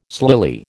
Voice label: "slily", American